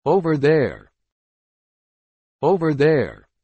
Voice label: "over there", English

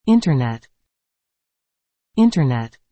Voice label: "Internet", English

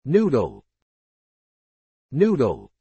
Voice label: "noodle", English

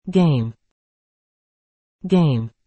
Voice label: "game", English

